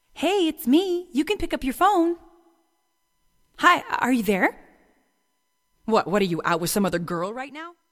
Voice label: female talking ringtones